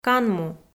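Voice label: "канму", Komi, female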